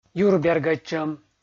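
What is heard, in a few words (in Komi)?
"юр бергӧдчӧм" Komi, male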